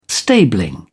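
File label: "stabling", British English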